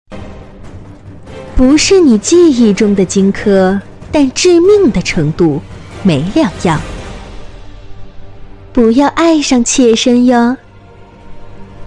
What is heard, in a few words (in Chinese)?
游戏配音